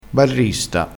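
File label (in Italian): "barrista", dialettale crespinese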